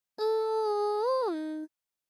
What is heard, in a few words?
english